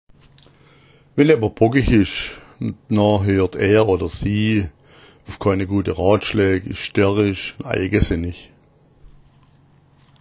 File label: bockich, schwaebisch